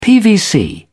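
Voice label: "pvc", British English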